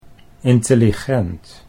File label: "intelligent", Dutch